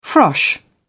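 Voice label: "frosh", British English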